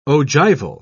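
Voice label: "ogival", British English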